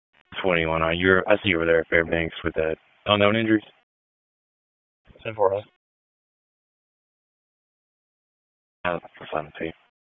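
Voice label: Police Southwest District Dispatch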